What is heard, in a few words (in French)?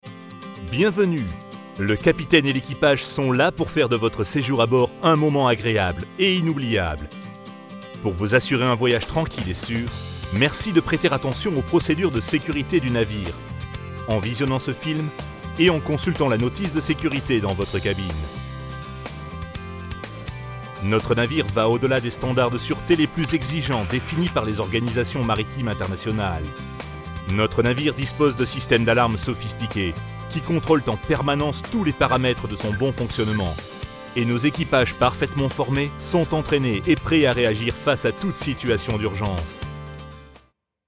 Voix grave accueillante; Securite Croisiere